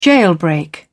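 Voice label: "jailbreak", British English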